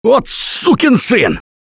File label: reaction negative, russian; Gambler